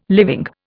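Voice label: "living", Indian English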